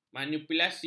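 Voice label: "manipulasi", Malay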